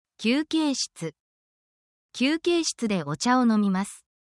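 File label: Japanese word, essential Japanese phrases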